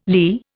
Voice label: "LEA", Indian